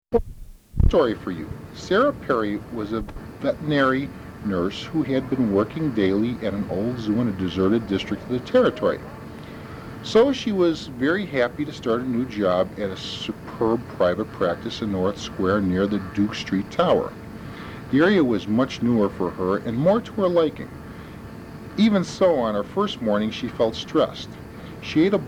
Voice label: chicago, dialects; speech